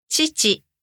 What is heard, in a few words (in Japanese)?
"ちち", Japanese